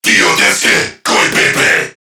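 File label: Player Spotted Crewmen, English; Dialog; Barks; Battle; Warframe; CorpusCrewmen; CrewmanA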